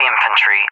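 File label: "infantry", English; USA; DCS; Allied Flight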